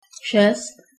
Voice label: "six", czech